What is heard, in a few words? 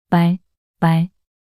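"말말" Korean, female; 낱소리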